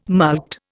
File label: "mulct", Indian